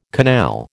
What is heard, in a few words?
"canal", American